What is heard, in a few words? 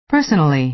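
"personally", American English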